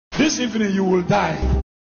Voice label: This Evening you will die Comedy sound

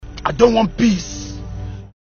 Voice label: i dont want peace comedy sound

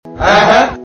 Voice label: Ehnnn Ehnnnn sound effect

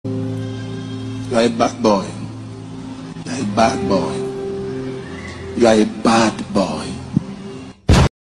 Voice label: You Are A Bad Boy Naija Comedy Sound Effect

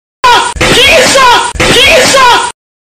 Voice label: Jesus Jesus Jesus comedy sound effect

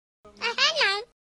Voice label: Ehen Nau Comedy Sound Effect

